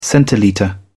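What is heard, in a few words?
"centilitre", British English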